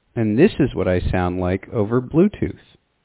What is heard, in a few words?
Audeze Penrose bluetooth mic sample